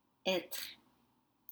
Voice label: "hetre", French; beech

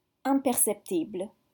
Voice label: "imperceptibles", French

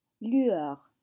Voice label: "lueur", French

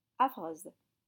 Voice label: "affreuse", French

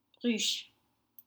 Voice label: "ruches", French

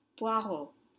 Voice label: "poireau", French; leek

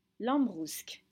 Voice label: "lambrusque", French

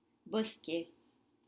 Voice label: "bosquets", French; copses

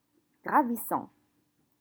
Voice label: "gravissant", French

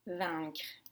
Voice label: "vaincre", French

